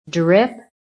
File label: "DRIP", american